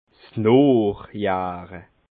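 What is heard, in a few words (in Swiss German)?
"noochjahre", Alsatian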